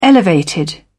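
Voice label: "elevated", British English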